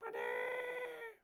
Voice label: Pig Chef, Voices